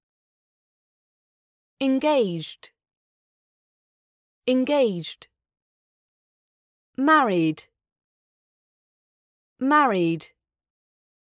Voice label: marital status vocab british accent pronunciation practice